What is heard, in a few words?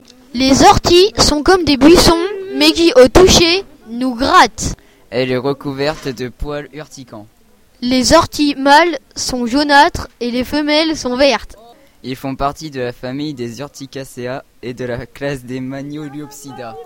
vocal fr